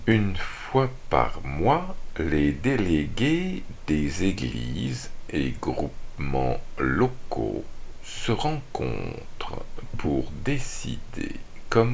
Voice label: warm-up French

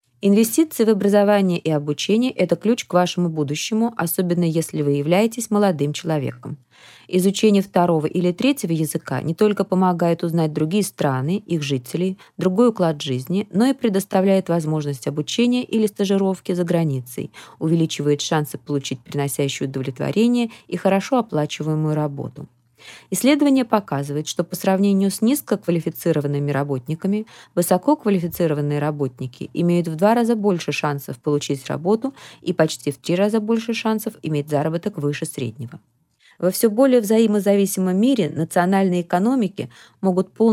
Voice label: Russian 1 Minute Trial Text

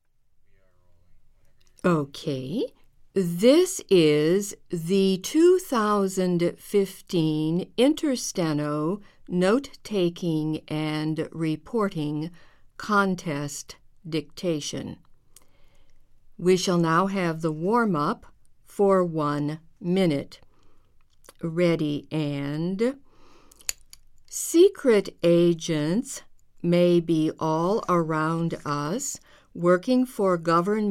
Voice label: English test

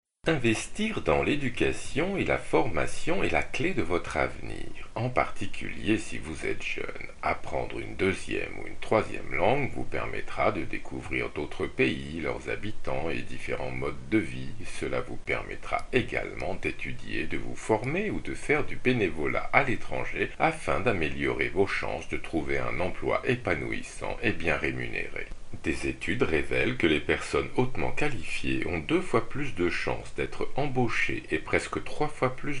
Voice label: French Francais 1 Minute Trial Text

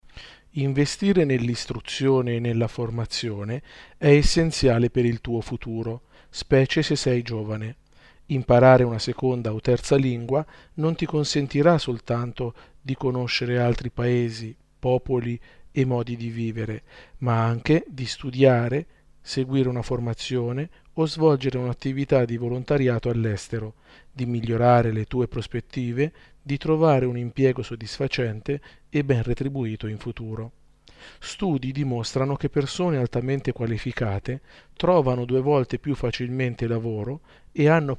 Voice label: Italian Italiano 1 Minute Trial Text

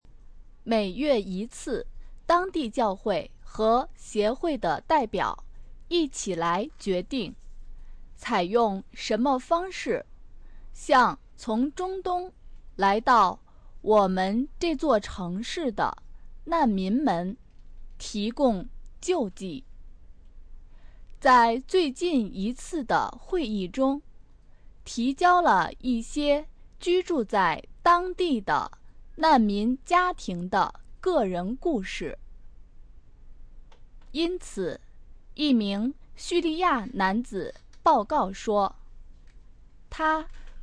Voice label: warm up Chinese

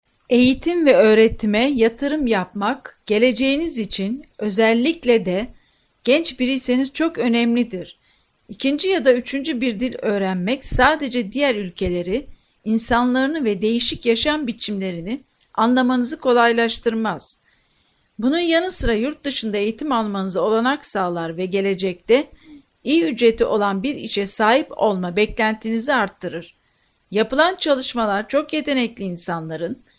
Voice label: Turkish Turkce 1 Minute Trial Text

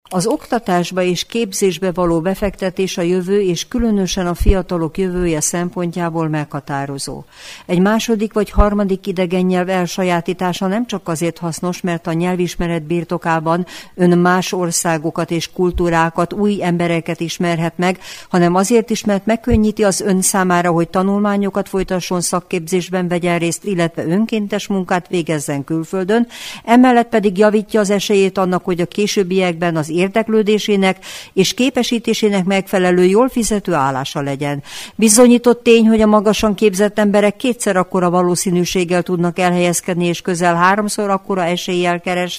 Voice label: Hungarian Magyar 1 Minute Trial Text

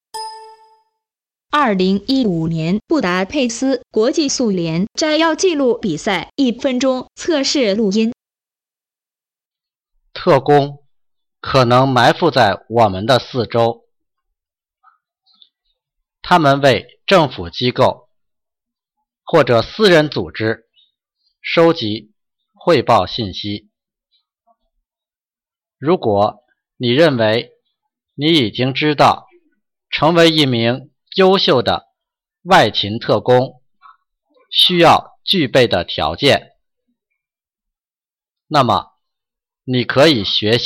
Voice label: Chinese practice dictation

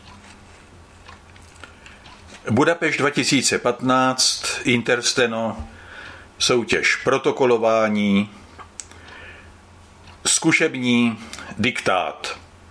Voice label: Czech practice dictation

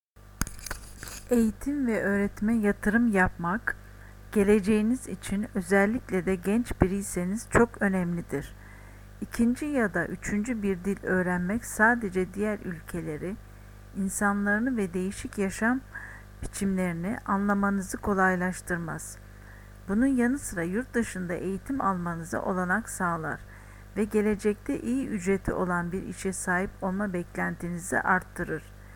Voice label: 1-minute trial dictation TR

